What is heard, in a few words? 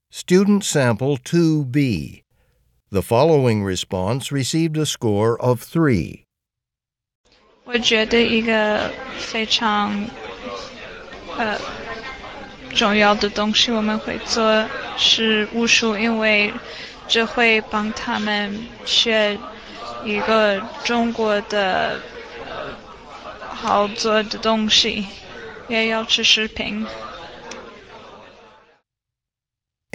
chinese conversation